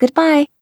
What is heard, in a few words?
goodbye female